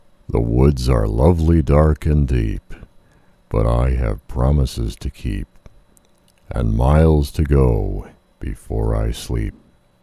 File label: poetry; Sony; HVR-V1U PCM Audio (DV); Speech Test (a reading from Robert Frost's Stopping by Woods)